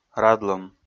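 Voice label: "радлӧм", Komi-Zyrian, male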